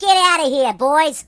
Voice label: Run away, voices Mobster; hedgewars